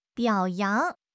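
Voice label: "biao3 yang2", Chinese; HSK4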